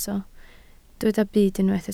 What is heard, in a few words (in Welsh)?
"byd", Welsh